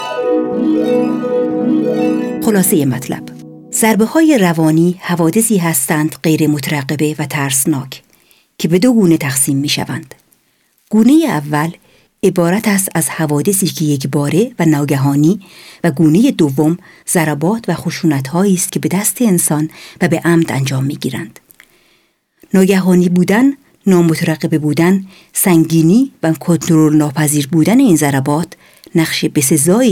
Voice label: Farsi; NAWA